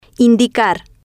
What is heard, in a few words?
"indicar", Spanish